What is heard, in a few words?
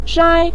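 "shai", Mandarin Chinese syllable, neutral tone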